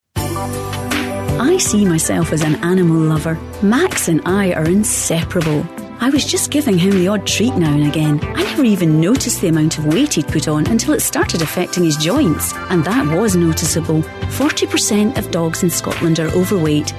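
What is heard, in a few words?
English Scottish, female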